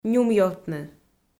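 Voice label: "нюмъёвтны", Komi, female; kpv audio dict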